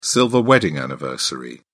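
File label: "silver wedding anniversary", British English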